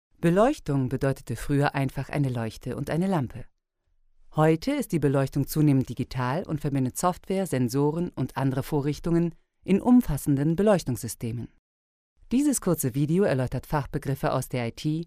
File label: female german voice over narrative